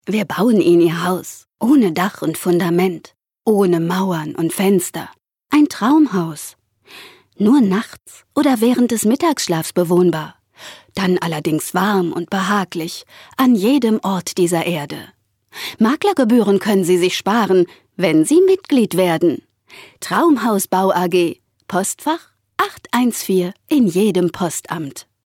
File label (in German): Werbung Dynamisch